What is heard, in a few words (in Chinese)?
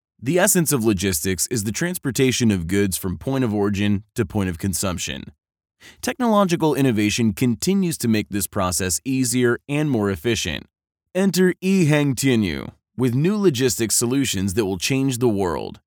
EN 宣传片; 亿航天域